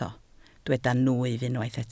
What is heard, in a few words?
"nwyf", Welsh